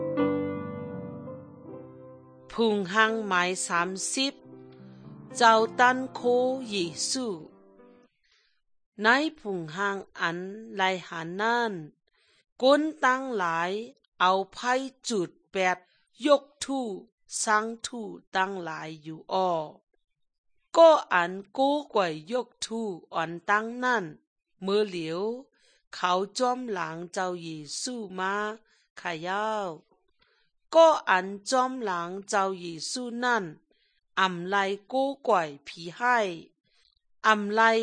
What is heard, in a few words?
good news shan eastern, drama